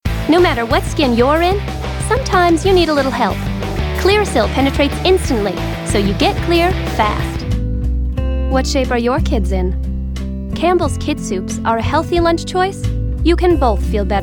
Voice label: Commercial